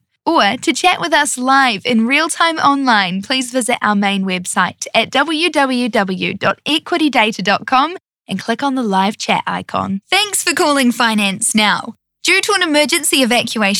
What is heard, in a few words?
NZ phone